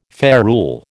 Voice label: "ferule", American

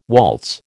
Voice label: "waltz", American

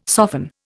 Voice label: "soften", Indian

